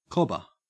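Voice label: "cobber", Australian English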